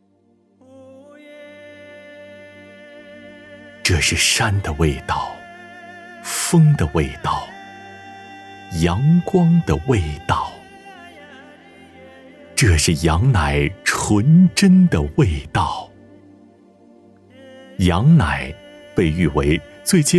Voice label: 男 国语 配音, 浑厚 大气 时尚, 宣传; 牧司羊奶宣传

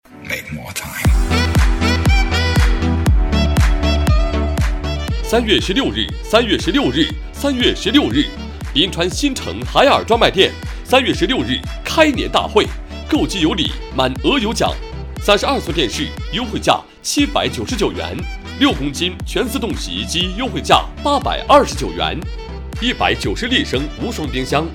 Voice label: 男 国语 磁性 激情, 广告; 海尔专卖店

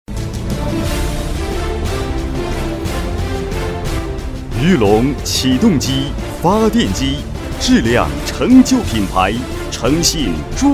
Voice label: 男, 国语, 大气 磁性, 专题; 企业专题片

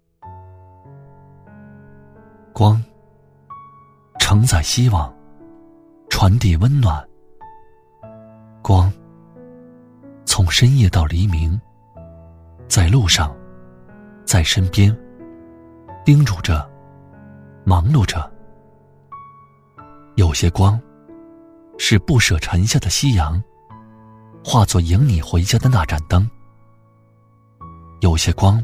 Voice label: 男国语, 磁性, 明亮; 旁白

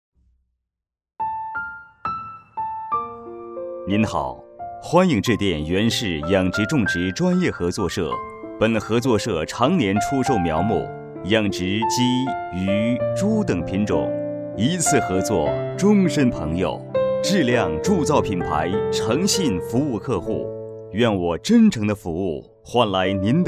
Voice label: 男, 国语, 大气 磁性, 电话彩铃; 【彩铃】电话彩铃2

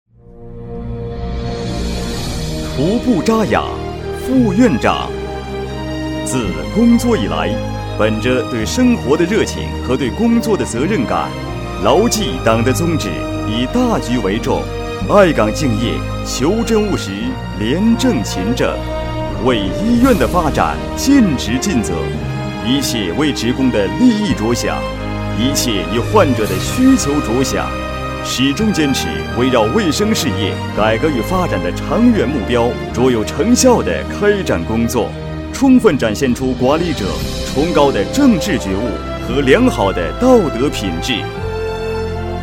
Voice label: 男, 国语, 大气 磁性, 颁奖; 【颁奖】先进人物颁奖

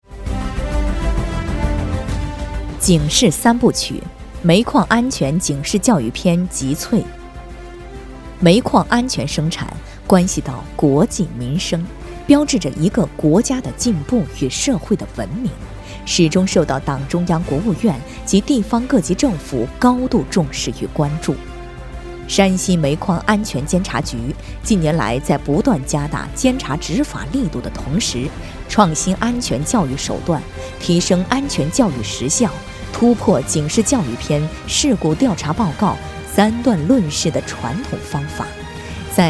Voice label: 国语 温柔 亲切, 专题; 警示三部曲

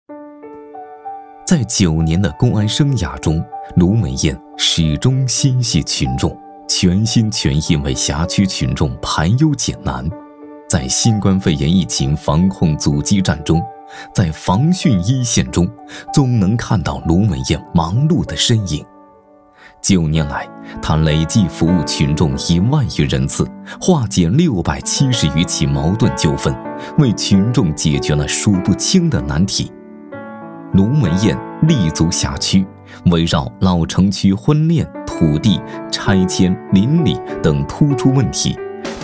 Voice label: 男国语, 人物

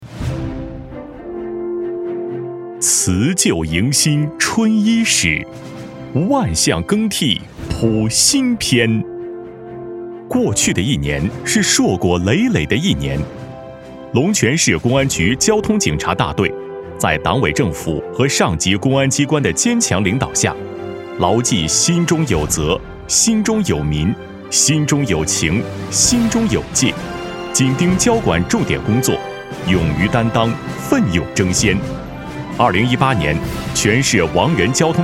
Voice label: 男 国语 配音, 浑厚 大气 时尚, 宣传片; 交警宣传片

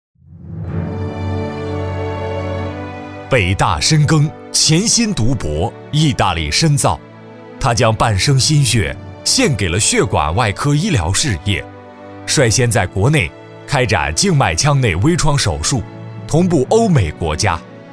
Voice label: 配音师男国语, 庄重大气 激情活力, 宣传; 血管外科主任医师

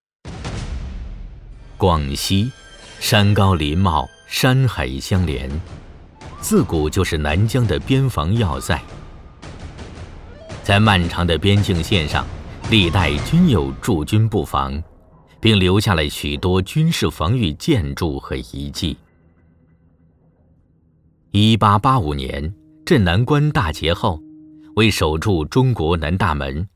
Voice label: 【纪录片】, 国语; 南疆边防要塞

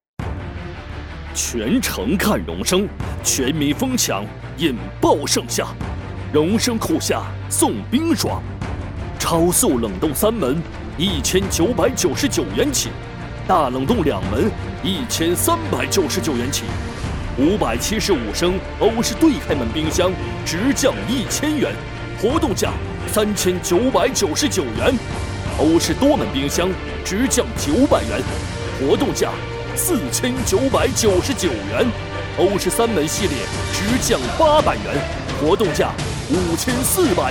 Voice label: 【广告】, 男国语, 磁性, 明亮; 世界品牌.

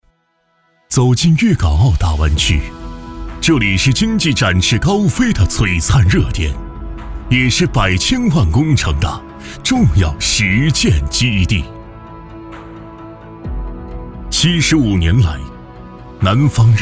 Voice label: 男国语, 专题; 粤港澳大湾区

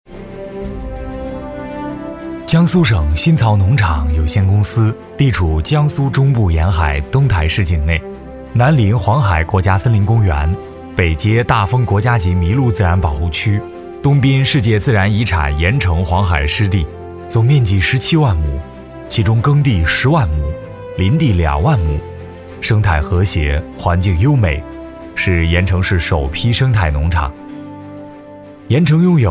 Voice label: 配音师男国语, 庄重大气 激情活力, 专题; 江苏新曹农场

